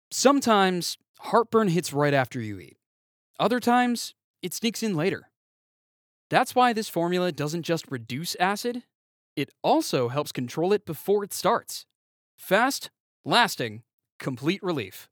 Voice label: Heartburn Ad Spot